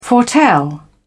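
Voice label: "foretell", British English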